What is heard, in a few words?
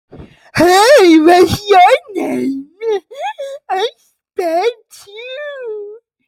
kid talk